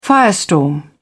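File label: "firestorm", British English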